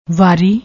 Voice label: "wari", Punjabi; ਵਾਰੀ